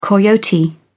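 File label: "coyote", British English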